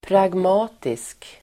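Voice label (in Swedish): "pragmatisk", Swedish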